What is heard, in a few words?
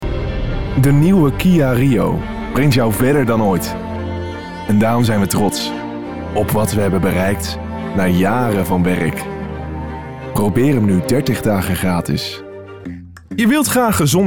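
Dutch, male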